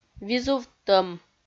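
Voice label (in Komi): "визувттӧм", Komi, female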